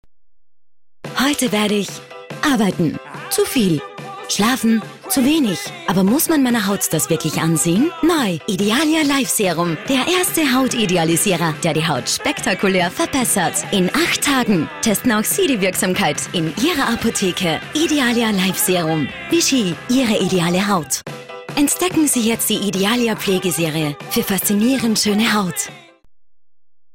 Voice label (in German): Sanft, AT